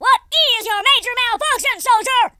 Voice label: oi nutter, Drill Sergeant; speech

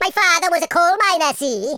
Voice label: excellent, Welsh; speech

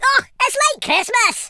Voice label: drop, Scotsman; speech

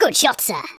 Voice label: "excellent", speech; Biggles

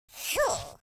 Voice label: relief, Russki

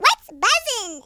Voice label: "drop", speech

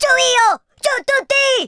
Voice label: kamikaze, speech Italian

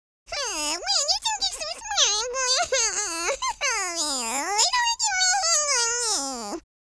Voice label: angry, speech

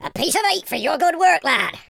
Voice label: amazing, Pirate

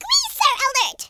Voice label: "incoming", speech

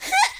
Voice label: jump, Southern Belle; speech

